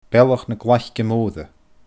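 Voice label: "Bealach na Glaice Mòire", Scottish Gaelic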